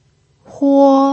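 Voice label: "huo" first tone, pinyin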